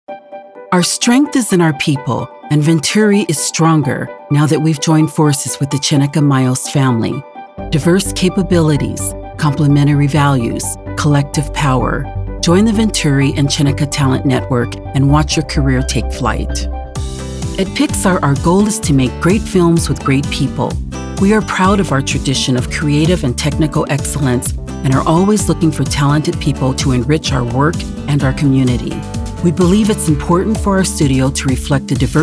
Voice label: CORPORATE

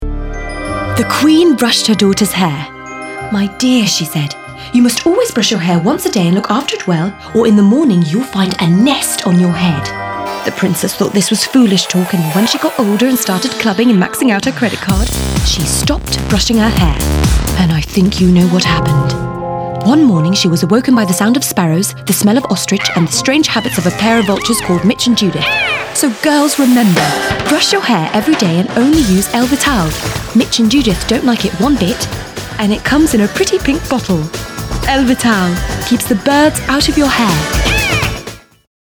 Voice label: commercial (RP Accent); ElleVital

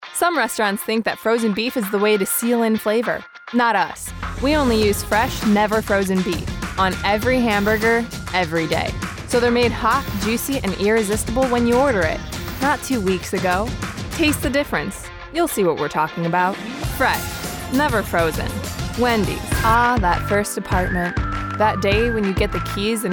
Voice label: Commercial VO

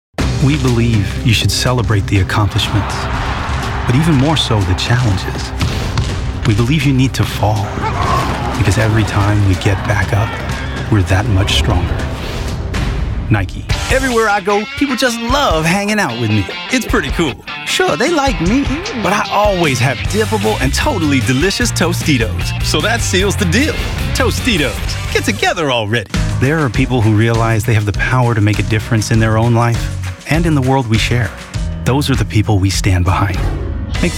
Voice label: Commercials

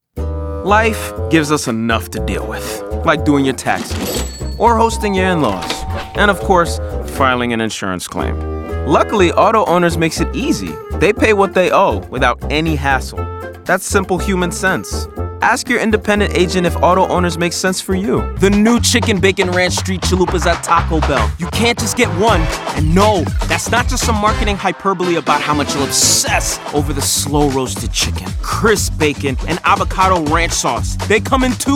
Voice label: COMMERCIAL VO

